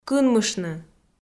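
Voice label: "кынмыштны", Komi-Zyrian, female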